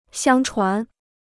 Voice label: "相传", Chinese

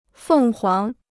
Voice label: "凤凰", Chinese

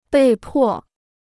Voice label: "被迫", Chinese

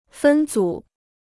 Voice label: "分组", Chinese

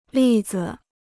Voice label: "例子", Chinese

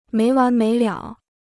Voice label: "没完没了", Chinese

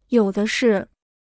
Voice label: "有的是", Chinese

